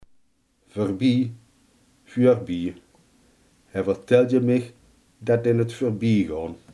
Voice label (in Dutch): "voorbij", limburgse